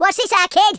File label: "watch this", Madchester